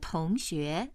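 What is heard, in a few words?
"tong2xue2", Chinese, female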